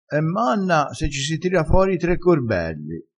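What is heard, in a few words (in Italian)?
"e manna", dialettale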